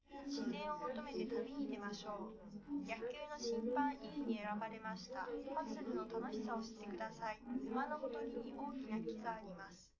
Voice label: female liverec; underdetermined; SiSEC11

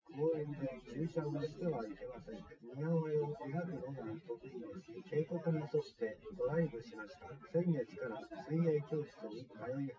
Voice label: test male synthconv 130ms 1m src; SiSEC08; SiSEC underdetermined

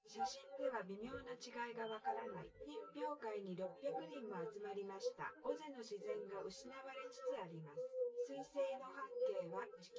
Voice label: female synthconv

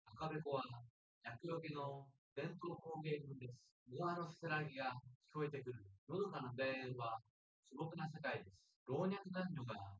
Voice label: male synthconv; SiSEC08; SiSEC underdetermined

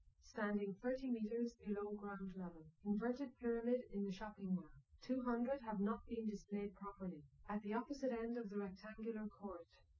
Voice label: female liverec; SiSEC08; SiSEC underdetermined

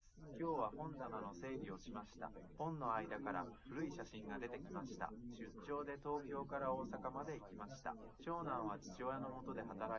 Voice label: test male liverec 250ms 5cm sim; SiSEC08; SiSEC underdetermined

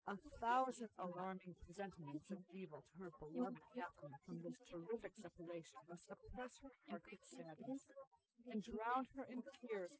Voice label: female, inst

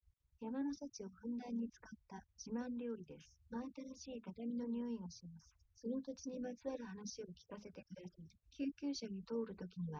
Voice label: female3 liverec 130ms 1m sim; SiSEC08; SiSEC underdetermined

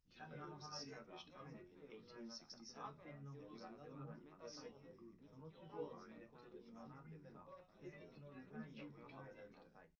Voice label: male liverec 5cm sim; SASSEC07

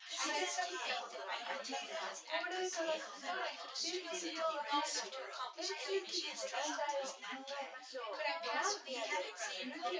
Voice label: female4 synthconv 250ms 5cm src

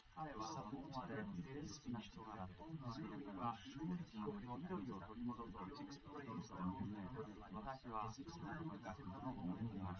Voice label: male live rec 250ms 1m sim; SiSEC11; underdetermined

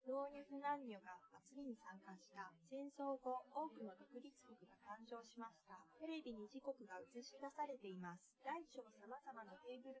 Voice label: female inst

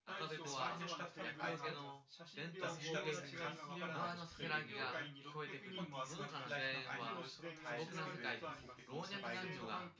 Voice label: male synthconv

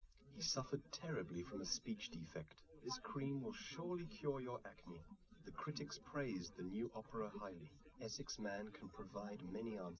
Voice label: test male live rec; SiSEC11; underdetermined

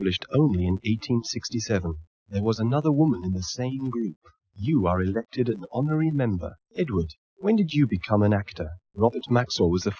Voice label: male inst src; SiSEC11; underdetermined

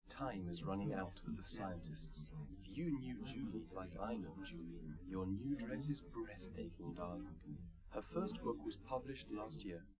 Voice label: male liverec; SiSEC11; underdetermined

